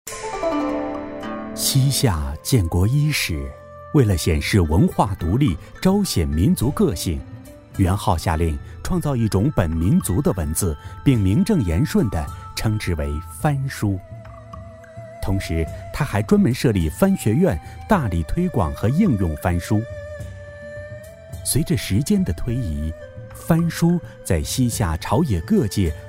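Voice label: narration, chinese mandarin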